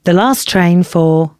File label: announcements, female